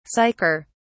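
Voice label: "sicker", British English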